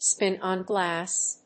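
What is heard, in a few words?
"spin-on glass", English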